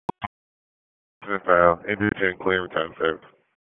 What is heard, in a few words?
FIRE OPS, p25; wake